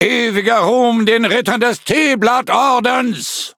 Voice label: loading, de; Cookie Run

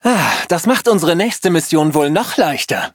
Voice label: levelup, de; cookie run

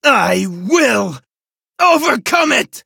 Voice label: defeat, en; Cookie Run

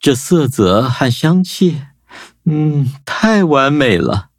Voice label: levelup zh-hant; cookie run

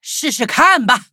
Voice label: levelup zh-hant; Cookie Run

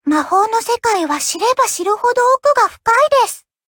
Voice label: levelup ja; Cookie Run

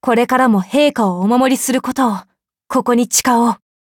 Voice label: upgrade, ja; cookie run

